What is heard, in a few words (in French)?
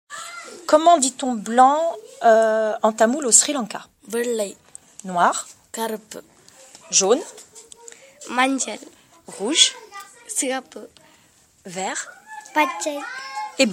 Les filles disent les couleurs en tamoul